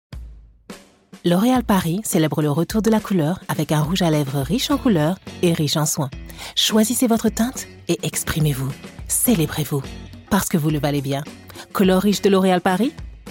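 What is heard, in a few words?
French, Advertising; GoLocalise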